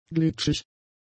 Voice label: "glitschig", German; adjectives